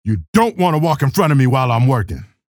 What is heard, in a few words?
chatter neutral; keel